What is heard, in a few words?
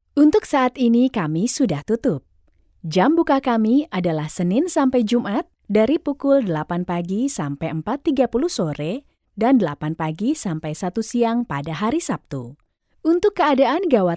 bahasa female indonesian voice locutora indonesia; indonesian female voice talent